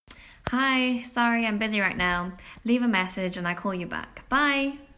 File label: answer phone message